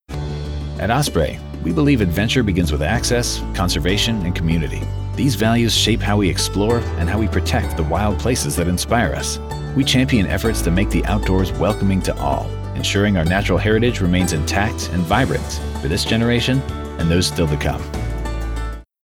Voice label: Corporate Natural Conversational; Osprey

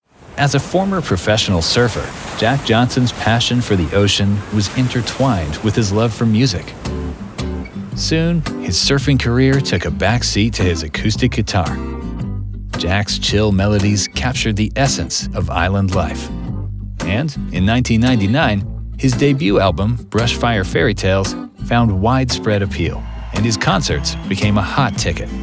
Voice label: Documentary Narration

